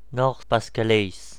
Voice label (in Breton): "nord pas de calais", voices, br; france region; gcompris